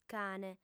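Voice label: "cane", Sardinian, female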